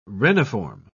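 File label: "reniform", English, British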